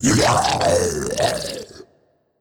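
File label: Big Death voice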